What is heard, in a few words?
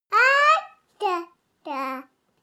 baby talk count